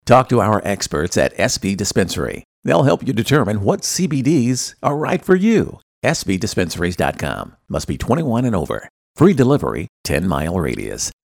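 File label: tag announcer dispensary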